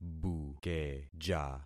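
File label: "bukeja", concatenated syllables; Affixing experiment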